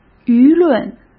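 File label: "舆论", Mandarin Chinese; chinese-flashcards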